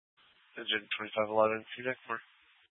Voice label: FD Dpts VHF 156.240 Patch

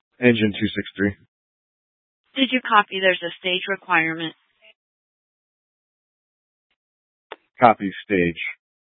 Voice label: Fire Departments, scanner

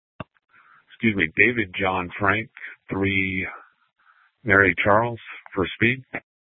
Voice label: Sheriff, scanner P25

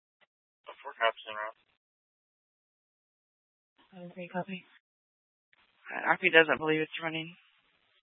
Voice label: Dispatch, scanner

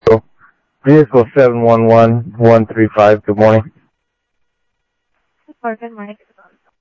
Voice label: Sheriff Central, scanner audio; ThompsonPeakScot; MCSO Central

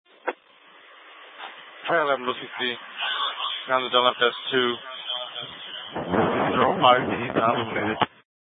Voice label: Light Rail Ops, scanner; Valley Metro Public Transit System; Regional Wireless Cooperative (RWC) - Simulcast F- Tempe; Light Rail Ops 1

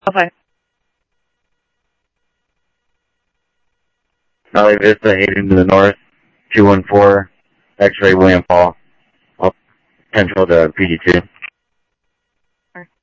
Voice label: Police, scanner; ScottsdalePolice; H-Scottsdale

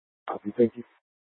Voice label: Police Dispatch, scanner; Channel 1

